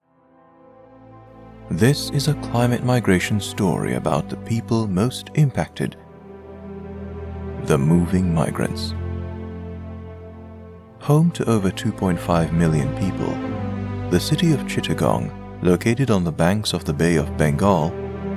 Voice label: Calm Deep Voice for Documentary